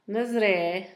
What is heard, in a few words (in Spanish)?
allá, Zapoteco, Asunción Ocotlan; Adverbios; INALI; Haspelmath